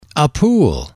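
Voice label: "a Pool", male, english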